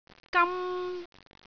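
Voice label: "gam1", Cantonese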